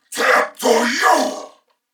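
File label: Throw Grenade Male, en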